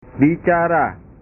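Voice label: "bicara", Indonesian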